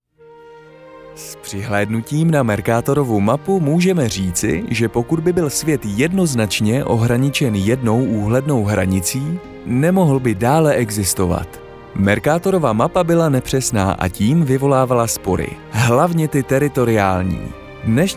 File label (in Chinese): 捷克语男 外语 小语种 抒情